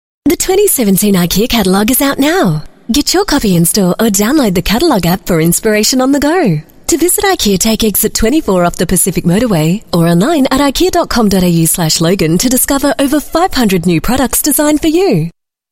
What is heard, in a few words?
AU MidAtlantic English, Australian Female; IKEA